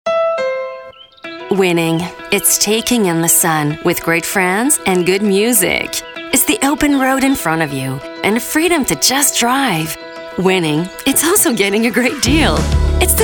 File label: voice English; voice demo